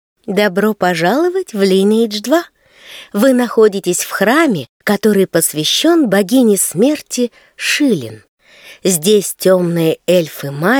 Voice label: tutorial voice, ru; lineage2

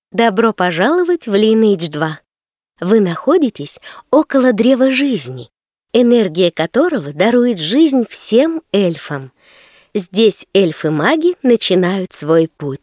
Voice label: tutorial voice, ru; lineage2

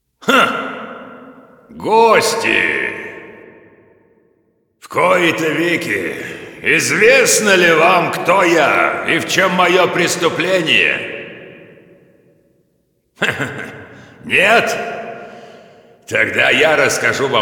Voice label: cinematic voice, ru; lineage2

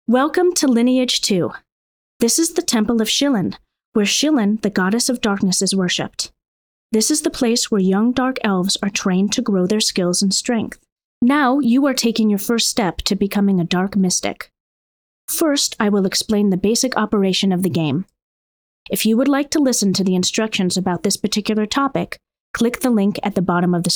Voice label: tutorial voice; lineage2

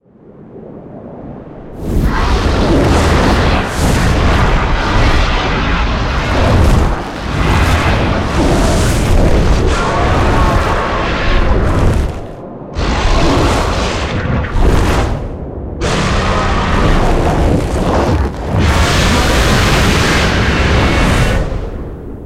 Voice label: cinematic voice, ru; lineage2

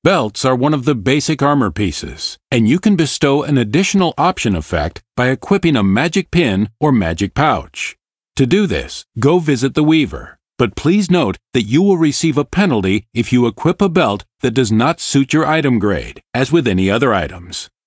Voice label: tutorial voice es; lineage2

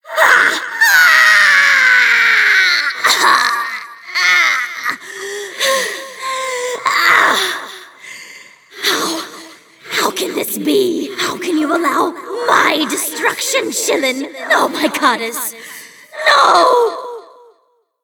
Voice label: cinematic voice; lineage2

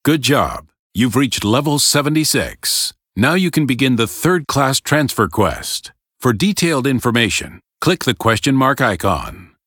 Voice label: tutorial voice; lineage2

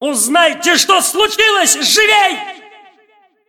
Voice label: cinematic voice, ru; lineage2

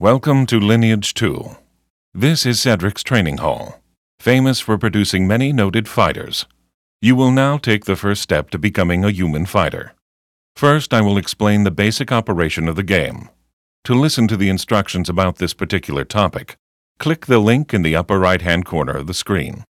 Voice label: tutorial voice; lineage2

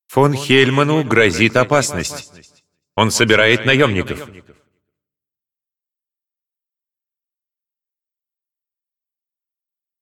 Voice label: cinematic voice, ru; lineage2

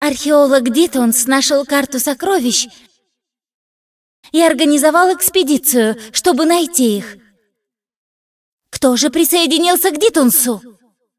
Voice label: cinematic voice, ru; lineage2

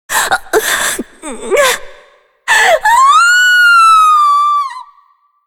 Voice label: cinematic voice; lineage2

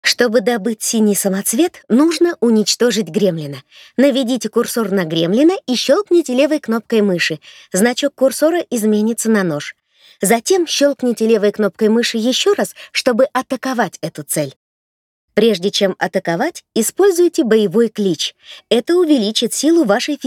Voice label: tutorial voice, ru; lineage2

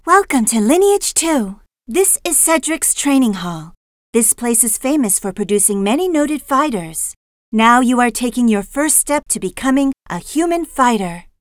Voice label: tutorial voice, es; lineage2

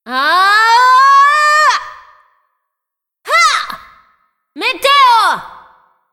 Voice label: cinematic voice, ru; lineage2

